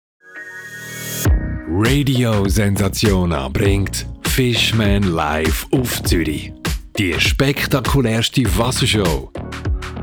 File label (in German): werbung; fishman live